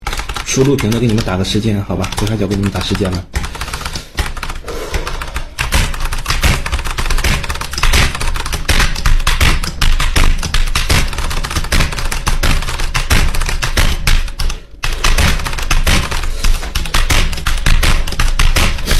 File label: gamer rage; chinese; made with Voicemod